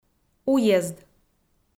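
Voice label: "уезд", Komi, female; kpv audio dict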